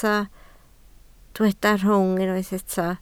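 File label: "rhwng" Welsh, spoken word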